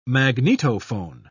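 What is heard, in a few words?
"magnetophone", British English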